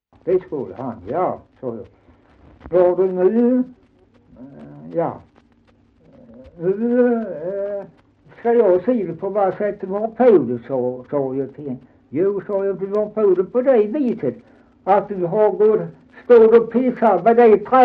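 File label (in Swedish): Dalby Skåne, Svenska sagor dialekter; Folkminnen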